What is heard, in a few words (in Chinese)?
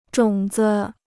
"种子", Chinese